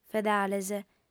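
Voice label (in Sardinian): "fedales", female; Fàbrica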